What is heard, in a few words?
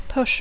"push", English